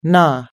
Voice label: "knaw", us-english

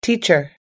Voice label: "teacher", us-english

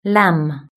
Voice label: "lamb", British English

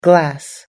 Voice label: "glass", us-english

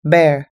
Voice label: "bear", us-english

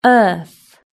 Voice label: "earth", British English

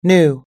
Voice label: "new", us-english

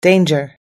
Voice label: "danger", us english

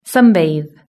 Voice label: "sunbathe", British English

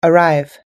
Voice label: "arrive", us english

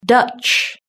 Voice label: "dutch", British English

